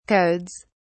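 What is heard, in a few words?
"codes", English, British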